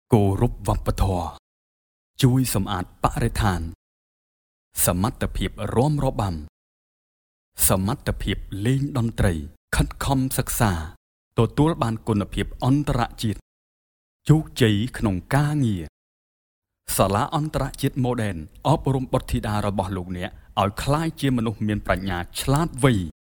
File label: Khmer